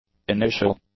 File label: "initial", English; adjectives